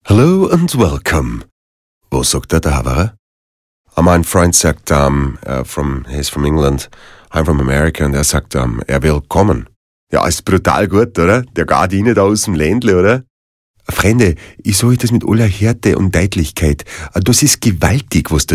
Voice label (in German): Dialekte AUT